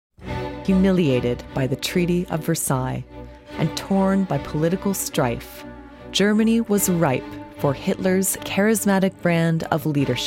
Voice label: Narrations